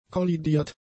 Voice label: "kollidiert", German; adjectives

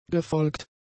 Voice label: "gefolgt", German; adjectives

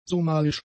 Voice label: "somalisch", german; adjectives

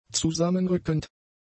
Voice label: "zusammenrückend", German; adjectives

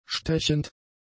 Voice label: "stechend", German; adjectives

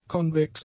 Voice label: "konvex", German; adjectives

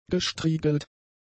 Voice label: "gestriegelt", german; adjectives

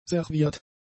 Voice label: "serviert", German; adjectives

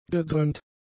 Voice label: "gedröhnt", German; adjectives

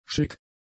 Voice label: "schick", German; adjectives

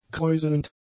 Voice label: "kräuselnd", German; adjectives

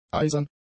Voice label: "eisern", german; adjectives

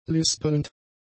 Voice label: "lispelnd", German; adjectives

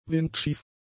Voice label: "windschief", German; adjectives

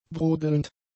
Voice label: "brodelnd", German; adjectives

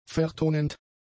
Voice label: "vertonend", German; adjectives

